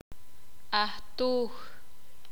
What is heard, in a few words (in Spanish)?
"Comadrona partera", Sakapulteko